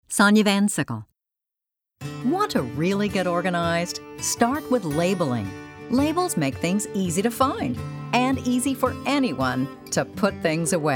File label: Narr